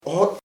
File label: father in law, chamacoco; suegro